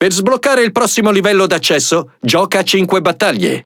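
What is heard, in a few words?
Speech Access Level Unlock, Italian; World Of Warships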